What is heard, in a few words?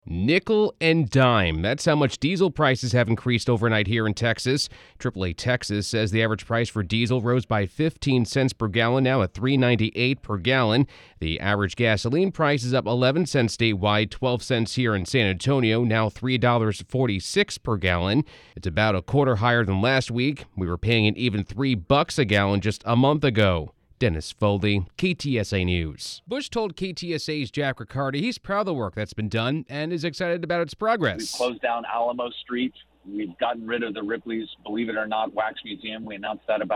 reporter